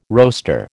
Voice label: "roaster", American